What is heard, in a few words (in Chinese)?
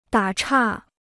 "打岔", Chinese